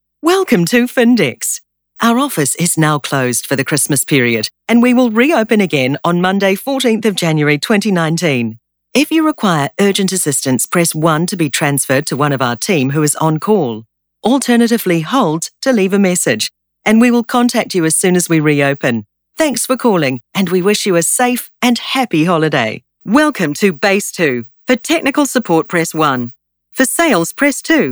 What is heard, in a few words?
NZ PHONE